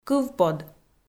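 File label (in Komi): "кывпод", Komi, female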